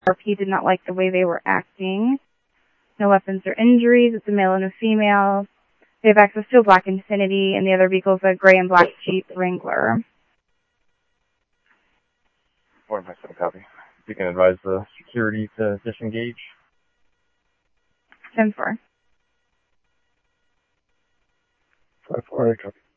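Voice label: Mesa Simulcast Police Department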